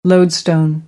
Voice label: "lodestone", American; oʊ - əʊ

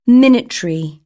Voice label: "minatory", British; AmericanBritish

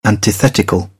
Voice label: "antithetical", British; AmericanBritish

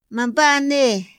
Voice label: "ma mbane", Otomí; Días de la semana; INPI; INALI

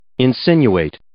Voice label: "insinuate", English

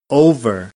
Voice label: "over", American English; oʊ - əʊ

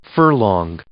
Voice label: "furlong", English

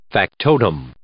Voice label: "factotum", English

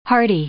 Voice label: "hearty", English

